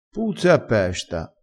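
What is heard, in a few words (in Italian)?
"puzza", vocabolario dialettale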